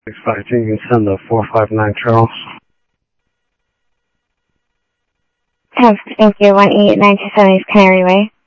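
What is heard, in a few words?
Sheriff East County, scanner audio trunk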